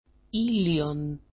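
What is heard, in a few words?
"i lion", Greek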